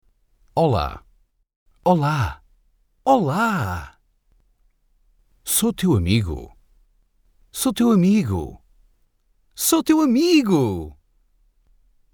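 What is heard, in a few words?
vox Portuguese man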